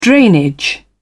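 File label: "drainage", British English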